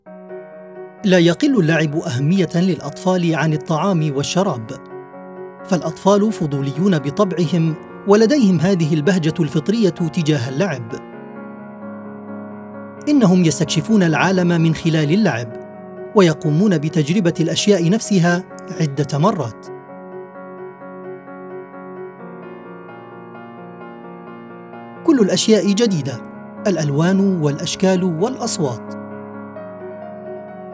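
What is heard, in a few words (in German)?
Schauspiel Lebendig, Arabic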